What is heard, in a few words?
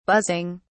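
"buzzing", English, British English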